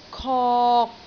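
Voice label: "kok3", Cantonese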